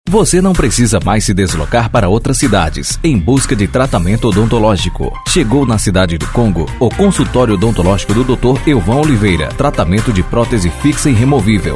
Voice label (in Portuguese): voz masculina